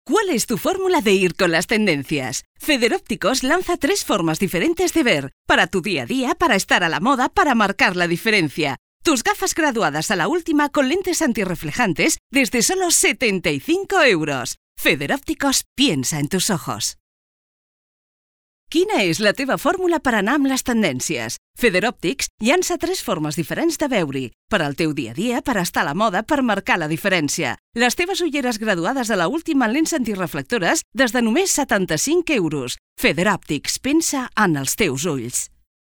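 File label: radio castellano catalan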